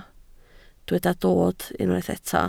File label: "dod", Welsh